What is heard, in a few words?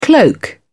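"cloak", British English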